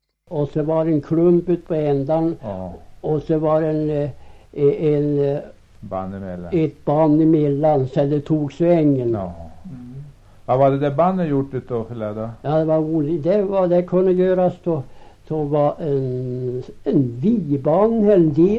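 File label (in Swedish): Högsjö Ångermanland, dialekter; Folkminnen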